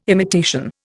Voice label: "imitation", Indian